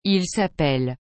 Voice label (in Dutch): "il s'appelle", frans; appeler